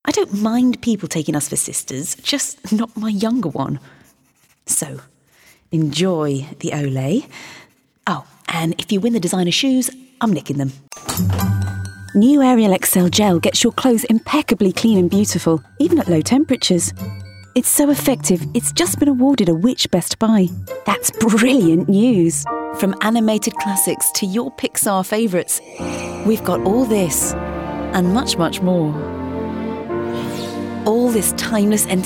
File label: Commercial British